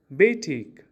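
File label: "wrong", English; opposite word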